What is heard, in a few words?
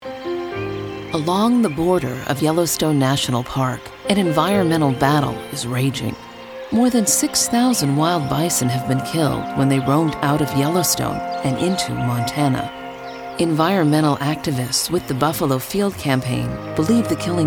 Narration